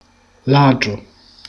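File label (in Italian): "ladro", Italian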